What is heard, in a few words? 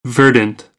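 "verdant", American English; AmericanBritish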